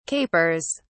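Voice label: "capers", British English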